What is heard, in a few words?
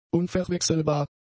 "unverwechselbar", German; adjectives